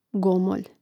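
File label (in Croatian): "gomolj", hrvatski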